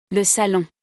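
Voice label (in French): "Le salon", French; vocabulaire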